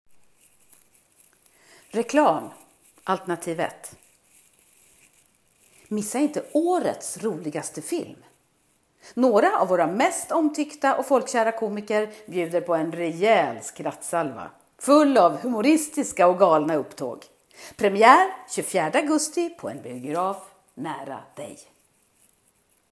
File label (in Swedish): Reklam